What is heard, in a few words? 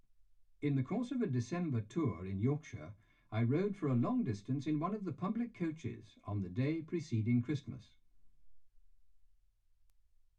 male speech english